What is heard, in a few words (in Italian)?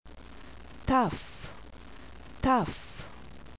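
"tav", Ebraico; qabalah; alfabeto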